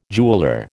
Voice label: "jeweller", American